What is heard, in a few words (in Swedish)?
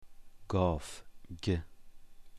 "gaf", persiska